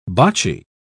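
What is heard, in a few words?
"bocce", British English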